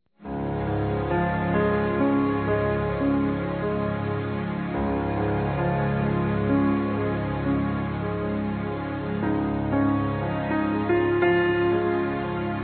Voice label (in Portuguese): Ano Novo Pai Feminino; Telemensagens; Natal e Ano novo